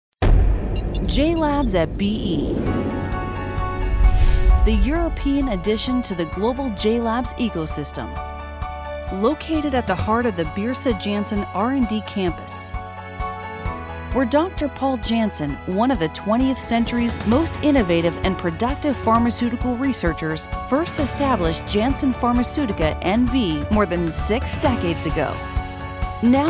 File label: Drama, English; WOMAN